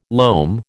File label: "loam", American